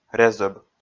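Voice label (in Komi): "резӧб", Komi, male; audio dict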